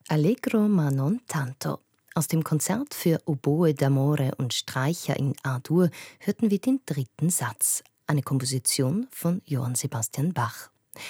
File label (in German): on-Air Moderation Frühsendung; Sprecherin; on-Air Moderation Frühsendung „Guten Morgen mit Ö1“